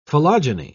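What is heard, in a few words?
"philogyny", British English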